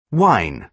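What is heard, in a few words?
"wine", British English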